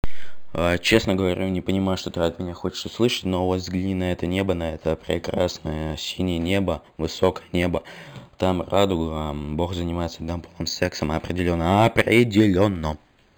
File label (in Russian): Zapisi rechi dushevnobolnyh shizofreniya